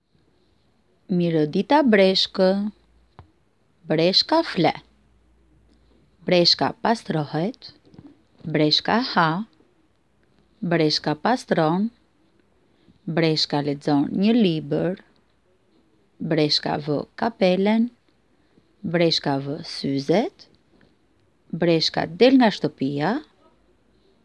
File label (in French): Albanais; bonjour tortue